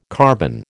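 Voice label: "carbon", American English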